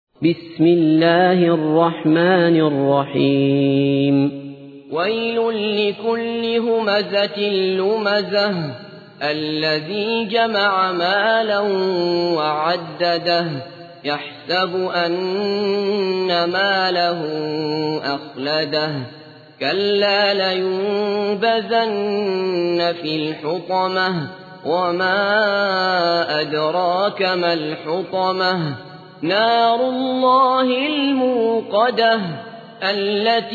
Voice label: ترتیل سوره همزه; عبدالله بصفر

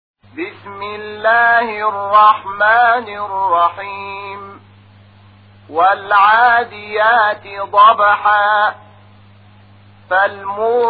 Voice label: ترتیل قرآن کریم سوره عادیات; محمود شحات انور

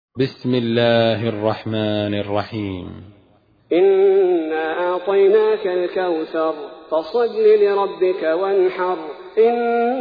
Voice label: ترتیل سوره کوثر; الثبیتی

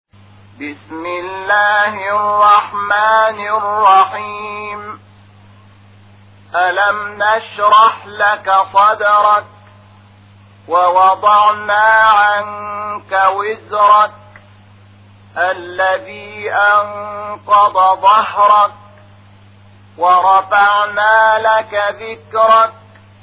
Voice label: ترتیل قرآن کریم سوره شرح; محمود شحات انور

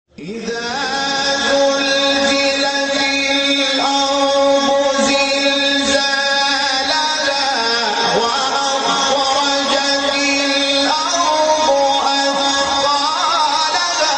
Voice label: فراز زیبای تلاوت سوره زلزال; استاد محمود شحات انور

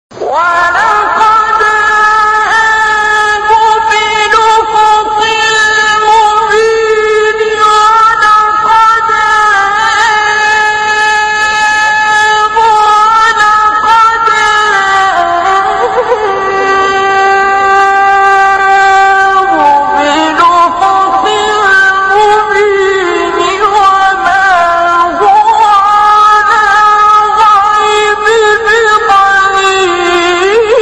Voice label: تلاوت آیه 23 سوره تکویر; استاد عبدالباسط

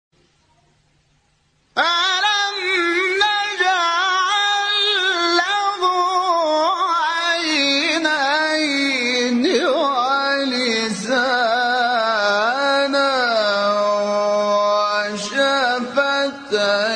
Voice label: تلاوت سوره مبارکه بلد; انور شحات انور

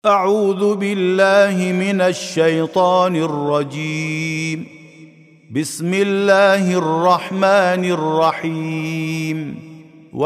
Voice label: ترتیل سوره مبارکه عصر

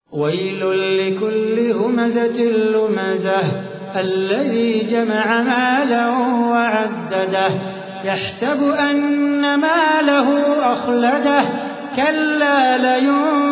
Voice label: ترتیل سوره همزه; صلاح بوخاطر

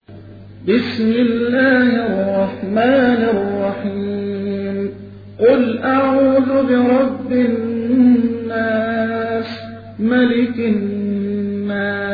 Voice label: ترتیل سوره مبارکه ناس; محمد عبدالعزیز حصان

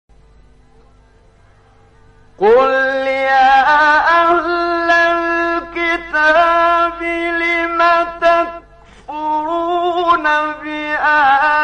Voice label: تلاوت آیه 98 سوره آل عمران; استاد منشاوی

